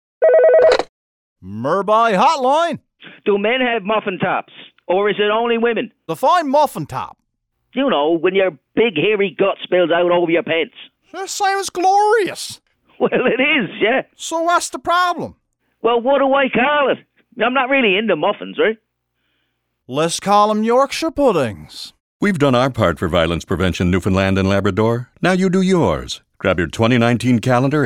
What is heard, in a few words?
Radio